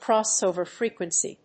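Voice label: "crossover frequency", English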